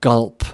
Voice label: "gulp", us